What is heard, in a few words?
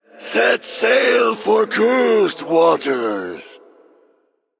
Male Night Elf Ghost Play